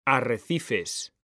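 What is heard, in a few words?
"ARRECIFES", Spanish, male; mekanta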